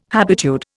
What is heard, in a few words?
"habitude", Indian